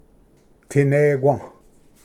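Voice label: "tinequa", Cherokee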